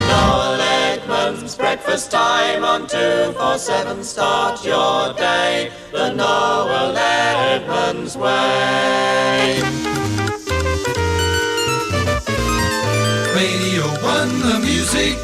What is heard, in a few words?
National Radio 1, Radio style ads intros sweeps & jingles; Noel Edmunds